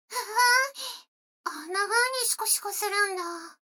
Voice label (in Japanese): 女の子 台詞